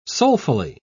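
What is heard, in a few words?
"soulfully", British English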